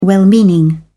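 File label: "well-meaning", British English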